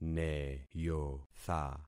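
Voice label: "neyotha", concatenated syllables; Affixing experiment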